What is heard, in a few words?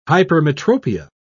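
"hypermetropia", British English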